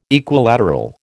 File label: "Equilateral", American